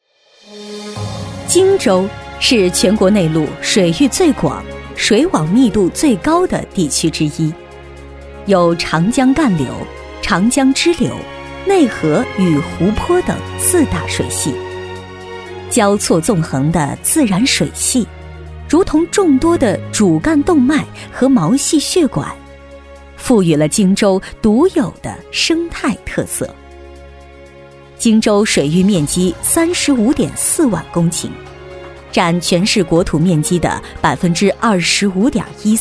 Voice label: 女 专题; 荆州水系

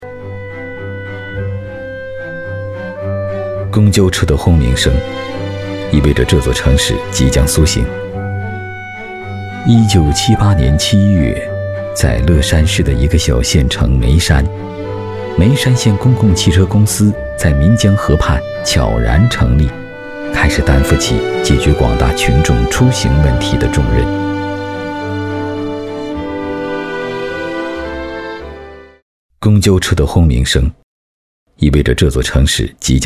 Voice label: 男-宣传片; 眉山公交

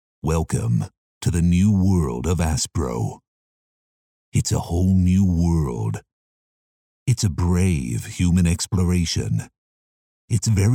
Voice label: 英文稿; 卓越

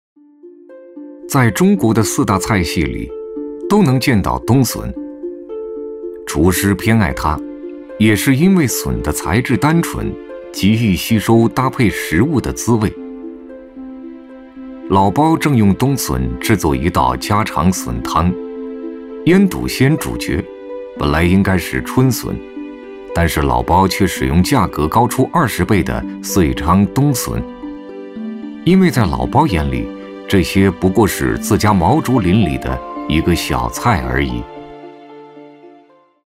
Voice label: 男 纪录片; 舌尖

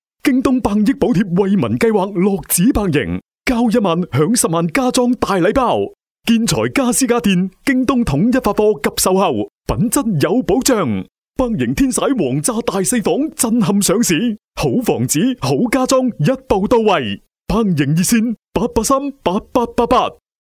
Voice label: 粤 男 广告 促销 房地产; 百盈天玺

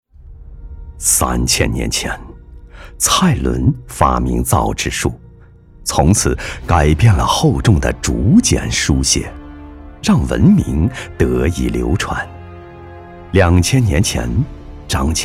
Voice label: 男 专题; 陕西中烟汉中卷烟厂

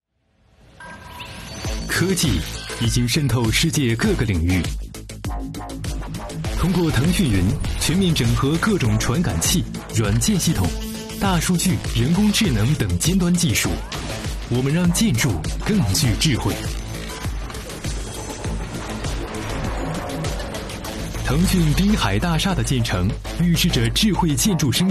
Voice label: 男 专题 智慧建筑; 腾讯

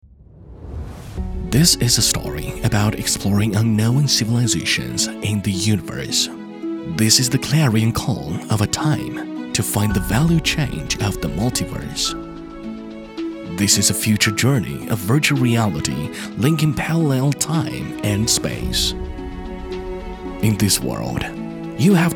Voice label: 英男 英语 讲述 专题

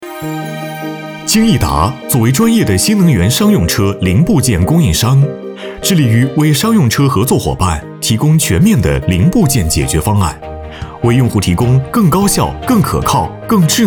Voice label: 男 专题宣传 科技活力

